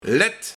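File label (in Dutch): "Let", Luik, Kettingschakel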